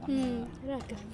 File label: woman in cafe